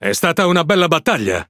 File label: Speech Quick Commands Good Game, IT; World Of Warships